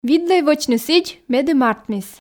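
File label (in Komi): "видлӧй вӧчны сідз медым артмис", Komi, female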